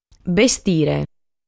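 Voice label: "Bestire", Sardinian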